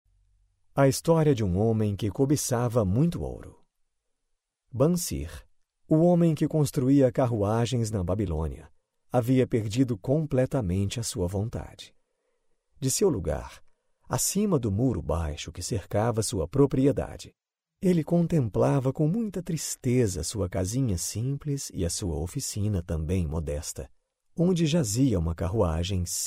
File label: portuguese brazilian narration